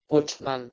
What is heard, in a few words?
"buttman", Proto Germanic